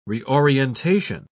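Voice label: "reorientation", English, British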